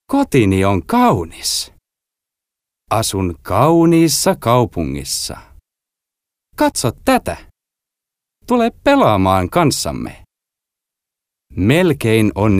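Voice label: Finnish male children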